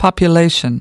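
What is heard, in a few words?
"population", English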